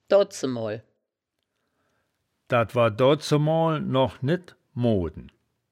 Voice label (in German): "doazemoal", woerterbuch, Wittlich